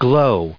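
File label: "glow", US English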